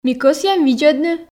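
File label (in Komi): ми кӧсъям видзӧдны, Komi, female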